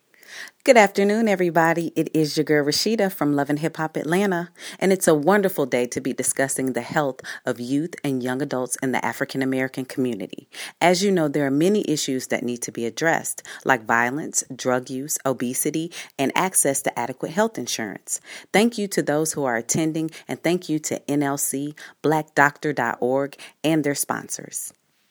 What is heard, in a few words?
psa